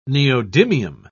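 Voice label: "neodymium", British English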